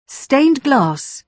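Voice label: "stained glass", British English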